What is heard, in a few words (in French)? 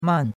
"man4", chinois; Cours de Chinois